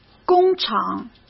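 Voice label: "工厂", Mandarin Chinese; chinese-flashcards; hsk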